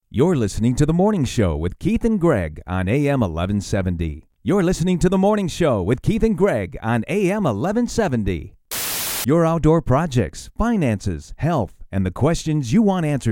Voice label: Radio Sweepers AC